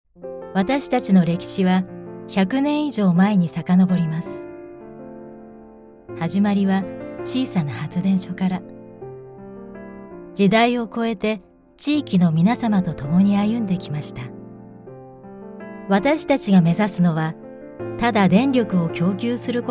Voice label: japanese promos

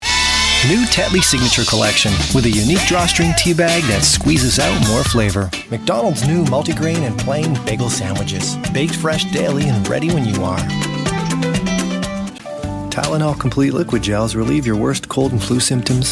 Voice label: english canadian commercial

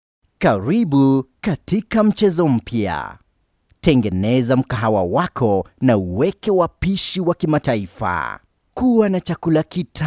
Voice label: swahili others

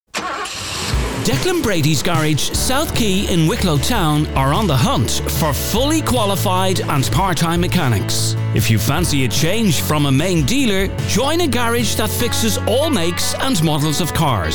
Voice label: english irish automotive; irlandés/inglés residente en Dublín

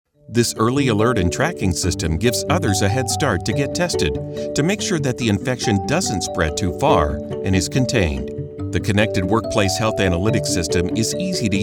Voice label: english american corporate videos

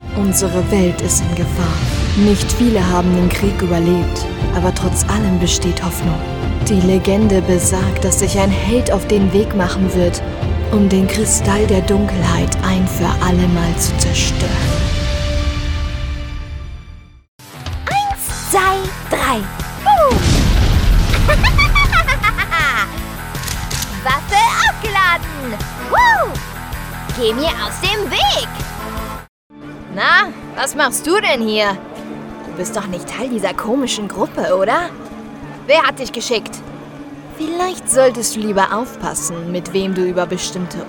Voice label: German video games

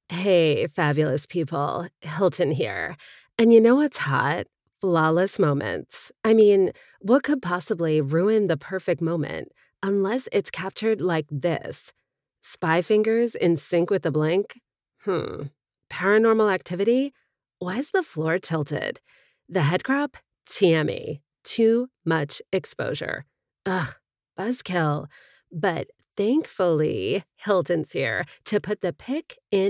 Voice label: english american impersonations

